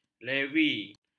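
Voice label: "levi", Malay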